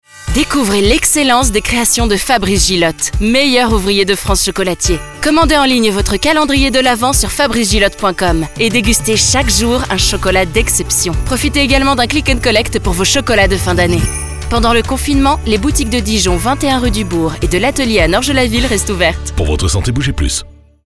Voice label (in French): Spot; Calendrier de lAvent